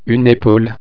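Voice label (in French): "epaule", French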